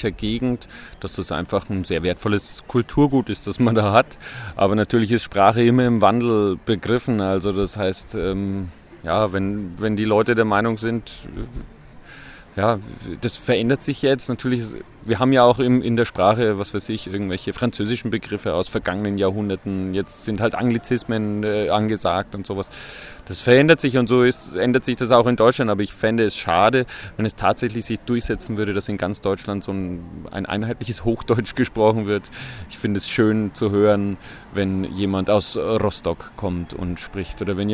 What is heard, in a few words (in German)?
Mundart